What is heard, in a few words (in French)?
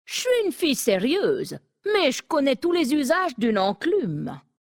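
French Femme Flirt; Nain sombrefer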